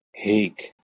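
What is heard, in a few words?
"h2ik", Proto-Indo-European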